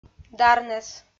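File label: "дарнӧс", Komi, female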